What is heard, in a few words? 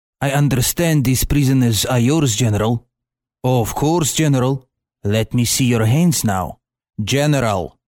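Russian Russia male character; russian voice over talent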